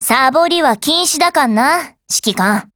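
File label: HELLO, Japanese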